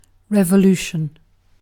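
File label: "revolution", British English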